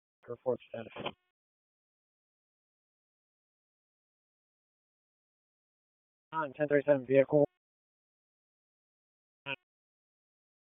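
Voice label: Raleigh Police Downtown District Dispatch, p25; wake p25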